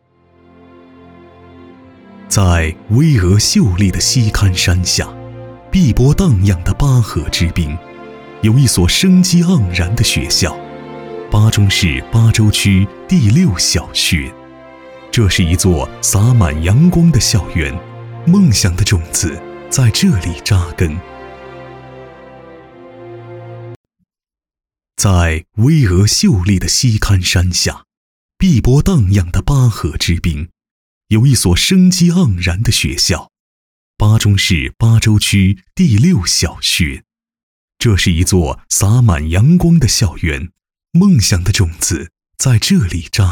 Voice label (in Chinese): 宣传; 放飞梦想收获希望